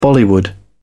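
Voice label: "bollywood", British English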